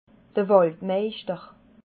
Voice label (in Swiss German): "waldmeischter", alsace